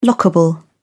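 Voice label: "lockable", English, British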